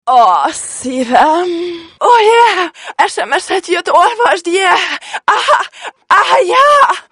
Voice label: Szívem Erotikus SMS, csengőhang

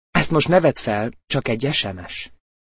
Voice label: Ezt most ne vedd fel (férfi); csengőhang

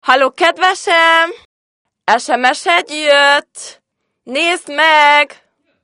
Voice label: Kedvesem SMS nő; csengőhang

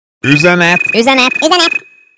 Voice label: Üzenet üzenet üzenet remix csengőhang

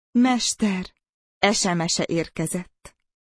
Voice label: SMS e érkezett csengőhang (nő); Mester SMS-e érkezett

